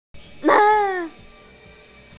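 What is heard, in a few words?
"nan2", Mandarin Chinese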